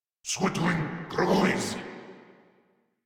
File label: Reload, Grineer Male